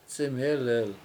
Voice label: "c$imhElhel" beaver, Washo